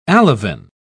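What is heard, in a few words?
"alevin", British English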